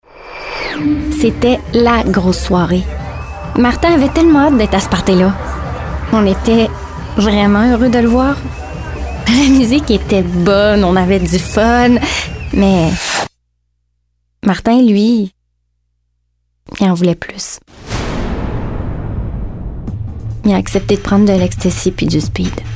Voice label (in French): voix femmes